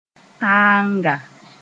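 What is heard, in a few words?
"taanga", Mooré; Shea tree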